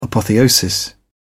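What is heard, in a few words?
"apotheosis", British English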